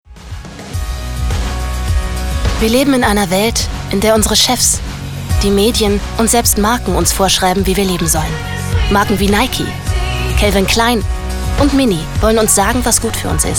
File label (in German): imagefilm